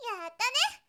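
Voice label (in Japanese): "yattane", Japanese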